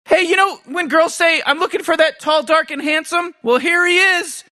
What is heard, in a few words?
generic male hero line; announcer dlc rick and morty